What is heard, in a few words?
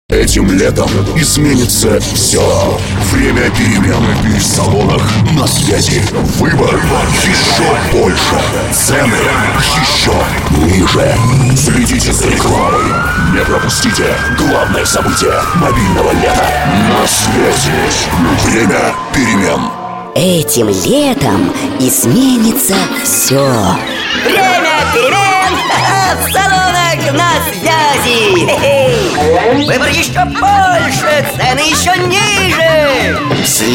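Russian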